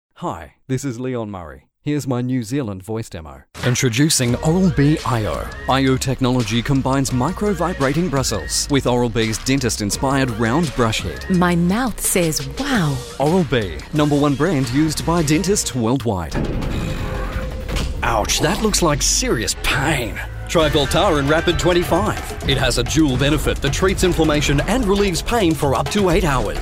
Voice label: NZ MVO; BRISVO; REEL